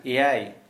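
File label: seven, Santali, male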